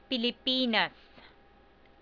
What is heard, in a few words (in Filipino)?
"pilipinas", Tagalog